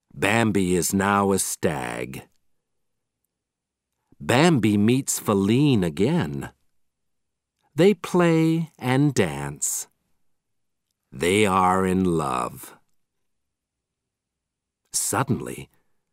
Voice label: Audio British English